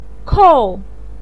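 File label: "kou" fourth tone, chinese, voice pinyin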